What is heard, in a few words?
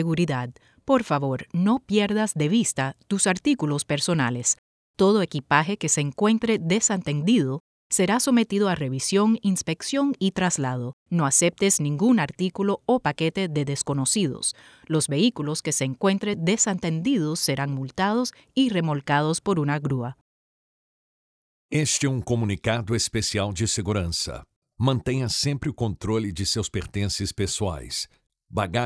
UNATTENDED BAGS ANNOUNCEMENTS, PA messages; Customer Sevice; PA messages 2022